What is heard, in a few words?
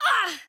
Hurt